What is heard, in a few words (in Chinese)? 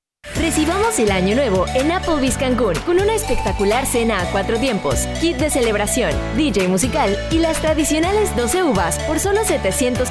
西班牙语女 外语 小语种 活力